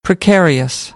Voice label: "precarious", American English